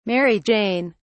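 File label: "mary jane", British English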